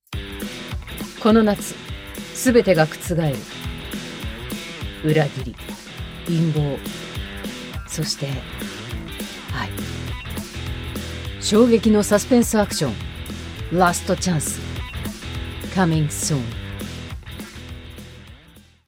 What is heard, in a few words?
japanese movie trailers; Japanese voice actor